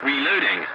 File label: voice message reloading, english; dialogs; War Thunder